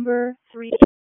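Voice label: Police Spec Ops Tac, radio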